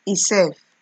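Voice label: "esef", Nigerian Pidgin, female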